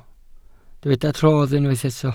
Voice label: "rodd", Welsh

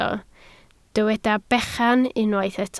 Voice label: "bechan", Welsh

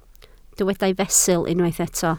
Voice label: "fesul", Welsh

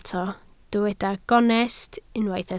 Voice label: "gonest", Welsh

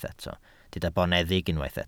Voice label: "boneddig", welsh

